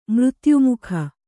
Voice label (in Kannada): "ಮೃತ್ಯುಮುಖ", Kannada